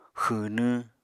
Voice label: "xwwnwñ", Apatani